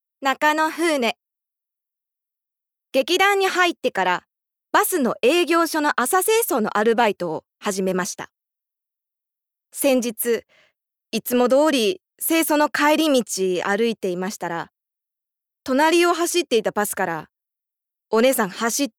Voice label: female voice Freetalk